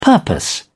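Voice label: "purpose", British English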